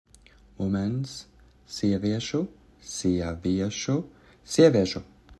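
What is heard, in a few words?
Womens, Latvian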